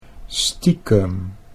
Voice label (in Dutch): "stiekem", Dutch